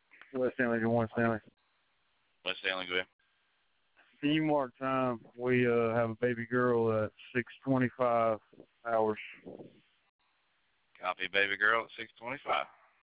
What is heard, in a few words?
announcement